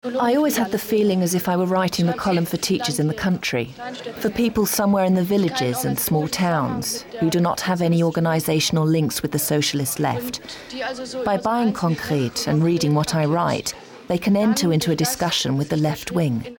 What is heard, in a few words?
docu; raf